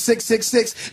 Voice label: 6 6 6 male; Voice; numbers and letters